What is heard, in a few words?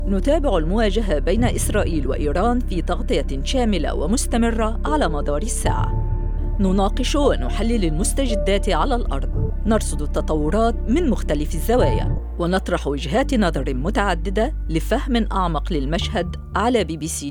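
Arabic Female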